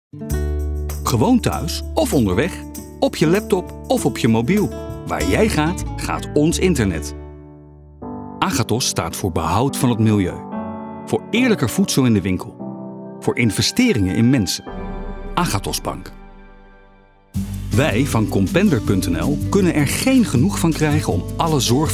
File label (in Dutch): commercial